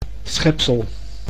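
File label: "schepsel", Dutch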